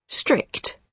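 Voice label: "strict", British English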